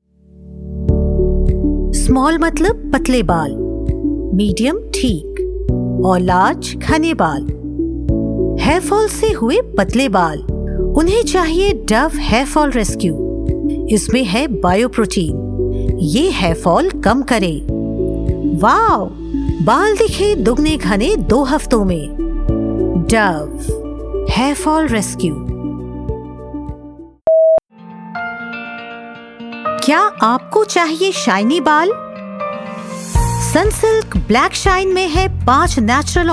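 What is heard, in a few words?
TVC Hindi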